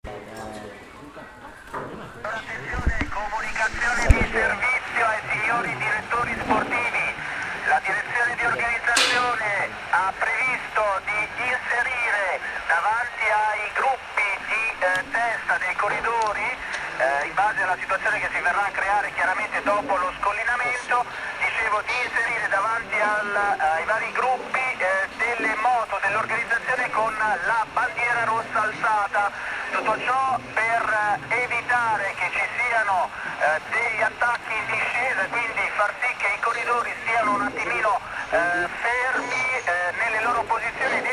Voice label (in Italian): Radiocorsa comunicato; Giro Italia 2014; neutralizzazione